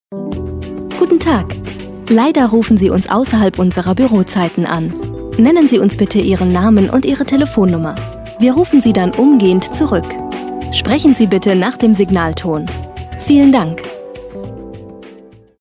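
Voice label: deutsch, female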